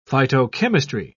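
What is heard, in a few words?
"phytochemistry", British English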